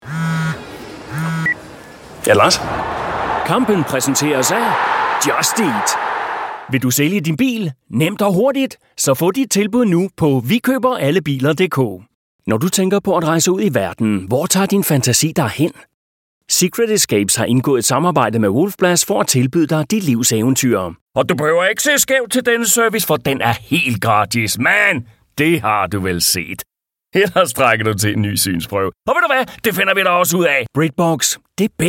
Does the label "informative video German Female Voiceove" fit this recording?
No